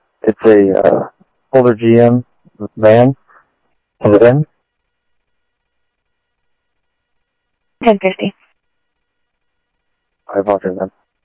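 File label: Sheriff East County, scanner audio; ThompsonPeakScot; MCSO - EAST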